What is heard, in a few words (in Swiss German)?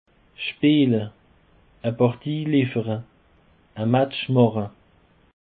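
"speele", Alsatian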